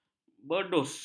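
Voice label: "berdus", Malay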